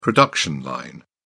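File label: "production line", English, British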